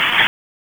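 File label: Raleigh Police Southeast District Tactical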